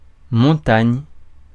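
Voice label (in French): "montagne", French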